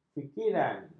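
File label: "fikiran", Malay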